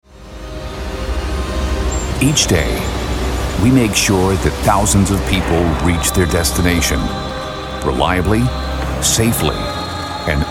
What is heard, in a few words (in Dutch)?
Product Videos Bedrijfsleven; BUITENLANDSE VOICEOVER; stemacteur